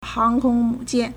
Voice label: "hang2kong1mu3jian4", Chinese